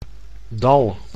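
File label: "dal", Dutch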